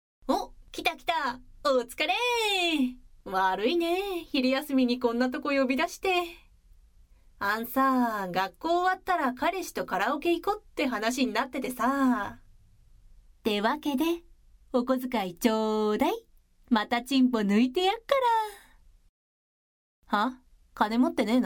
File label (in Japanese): ささやき耳舐め; ギャル後日談；お昼休み耳舐めプチサポ